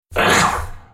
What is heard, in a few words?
effort; KhazixDroid; Character Voices - English